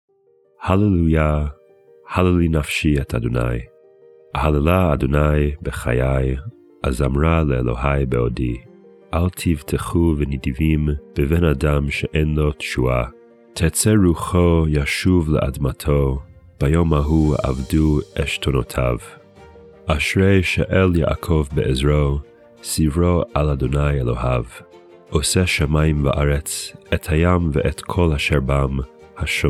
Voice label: poetry and prayer psalm hebrew